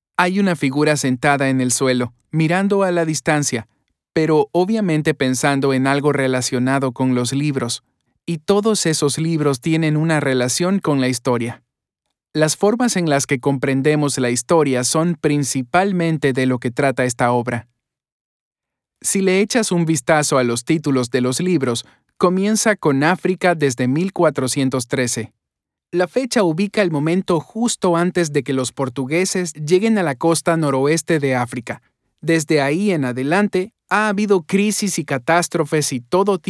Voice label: spanish latin american audio guides